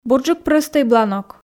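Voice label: "бурджык прӧстӧй бланок", Komi, female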